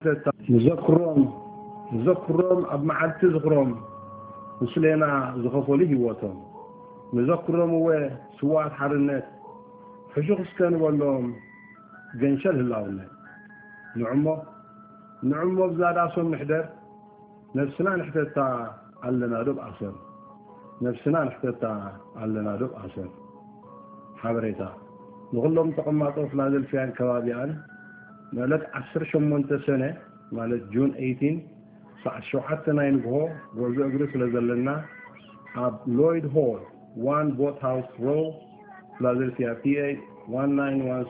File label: voicemail